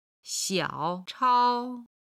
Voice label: "xiao3chao1", chinese